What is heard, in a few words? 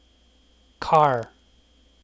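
"car", English syllable; kdeedu; klettres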